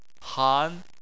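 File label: "han", Chinese, second tone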